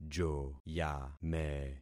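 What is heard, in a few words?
"joyame", concatenated syllables; Affixing experiment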